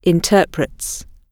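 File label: "interprets", British English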